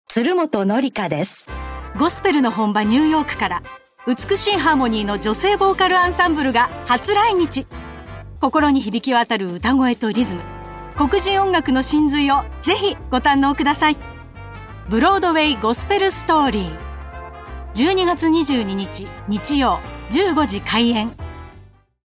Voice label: female spot

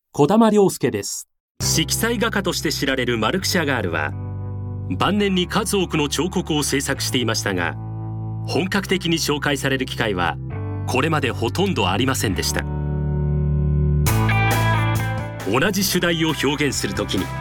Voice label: male tv program

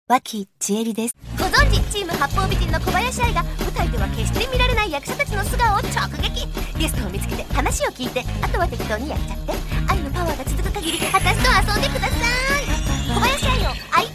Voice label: female variety show

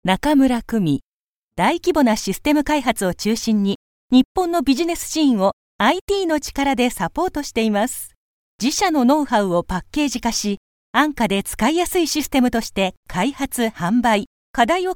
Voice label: female VP

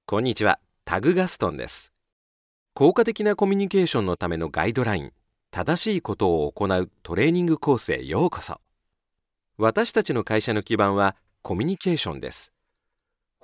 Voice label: tutorial

